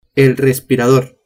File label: "respirador", spanish